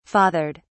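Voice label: "fathered", British English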